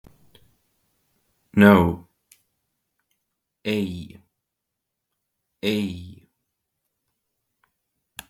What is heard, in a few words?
No, estonian; the talking estonian phrasebook